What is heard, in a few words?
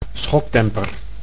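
"schokdemper", Dutch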